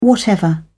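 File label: "whatever", British English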